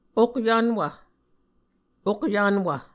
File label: "okyvnwv", Muscogee